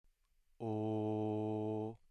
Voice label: mid-high back rounded vowel